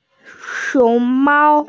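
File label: "xiong2mao1", Mandarin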